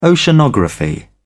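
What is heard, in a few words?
"oceanography", British English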